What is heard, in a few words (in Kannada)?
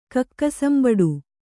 "ಕಕ್ಕಸಂಬಡು", Kannada